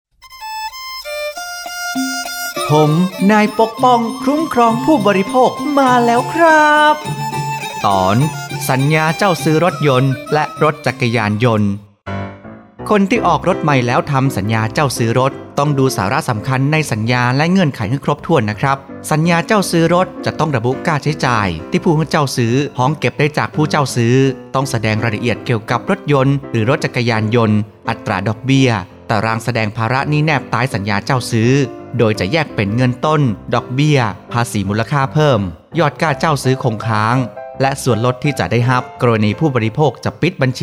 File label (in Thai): สปอตวิทยุ สคบ. ภาคเหนือ